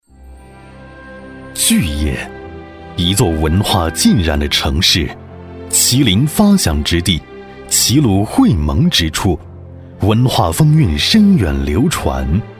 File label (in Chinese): 男 专题 大气辉煌